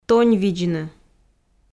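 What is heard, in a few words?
"тоньвидзны", Komi, female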